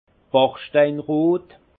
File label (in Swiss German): "bachsteinrot", alsace; vin; brique